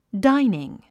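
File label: "dining", English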